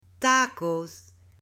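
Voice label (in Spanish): "tacos" Spanish, male; ALIMENTOS; AppLenguaje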